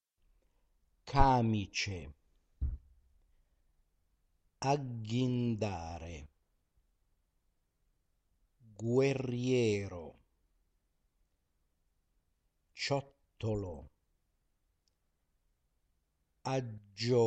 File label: pronunciation practice